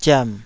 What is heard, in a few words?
"ziam3", taiwanese